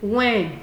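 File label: "when", Nigerian Pidgin, female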